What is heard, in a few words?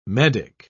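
"medick", English, British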